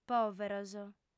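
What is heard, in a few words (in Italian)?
"pòveros", lingua sarda, fem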